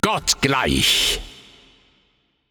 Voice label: Announcer German Spree 13 Kills

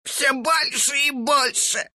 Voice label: death, ru; dota2

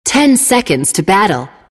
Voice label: announcer count battle; Lina announcer

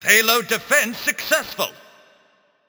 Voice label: Announcer Match Payload Enemy Failed; Mecha Commander; Paladins

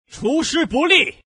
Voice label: failure, zh; Invo; Dota 2

